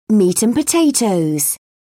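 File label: "meat and potatoes", British English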